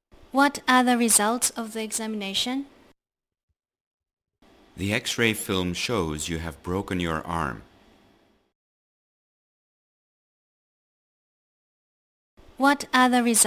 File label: Conversation